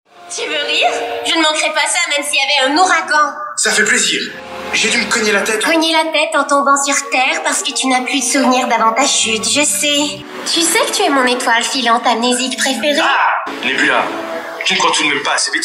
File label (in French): Voix enfant-ado Français international